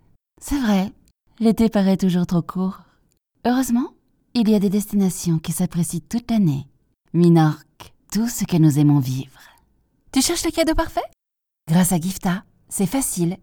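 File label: comercial, French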